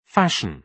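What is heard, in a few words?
"fashion", British English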